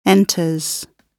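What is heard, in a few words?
"enters", British English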